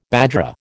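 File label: "bajra", American English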